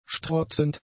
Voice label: "strotzend", German; adjectives